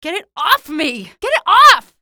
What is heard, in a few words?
alert head, female; hl2 ep1; episode 1, npc